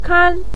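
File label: "kan" neutral tone, Mandarin Chinese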